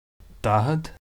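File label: "daichead", Irish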